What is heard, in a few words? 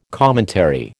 "commentary", American